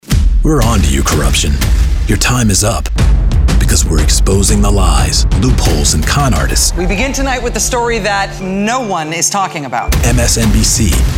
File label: Promo